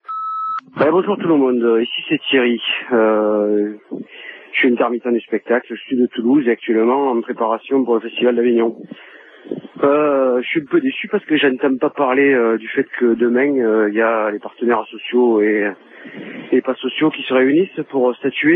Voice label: Intermittents repondeur

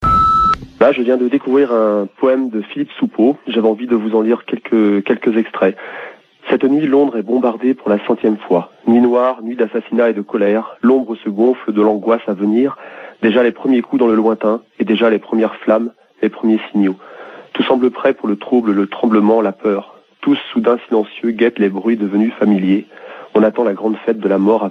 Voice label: Irak bombardements repondeur

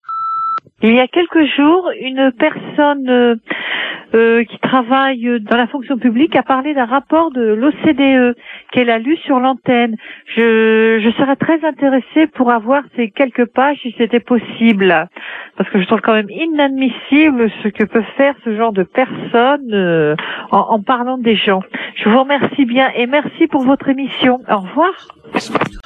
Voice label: OCDE repondeur

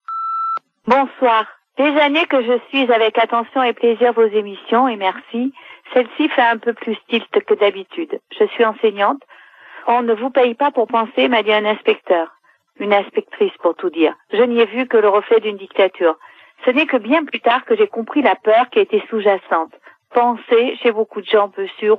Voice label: Education Nationale repondeur

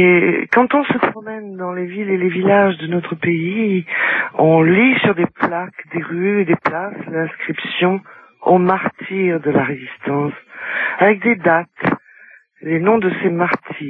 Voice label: Lettres fusilles repondeur

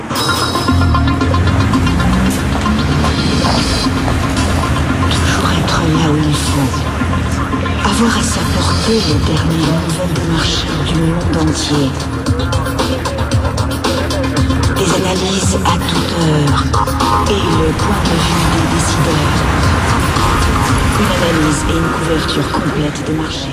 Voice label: Pub TV; Bloomberg TV

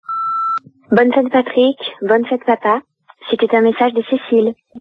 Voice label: Bonne fete repondeur

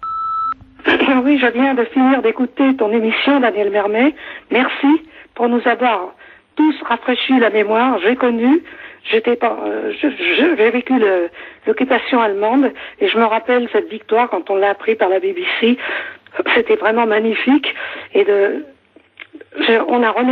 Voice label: Stalingrad repondeur

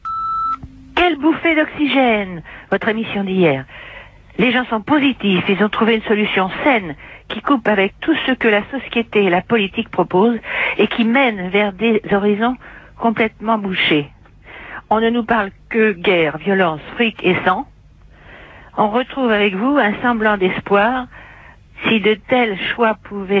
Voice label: Ambiance Bois répondeur

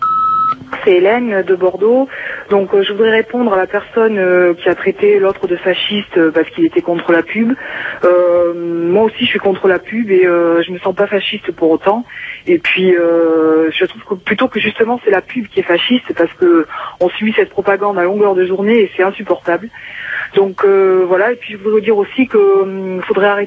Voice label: Pub répondeur; France Inter

